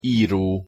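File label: "iro", Hungarian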